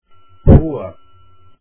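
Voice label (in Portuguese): "rua" Portuguese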